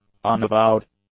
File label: "unavowed", English; adjectives